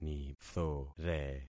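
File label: "nithore", concatenated syllables; Affixing experiment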